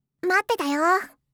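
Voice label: "mattetayo", Japanese; gaisyutsu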